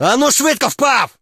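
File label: attack, Ukrainian; monsters; human; combat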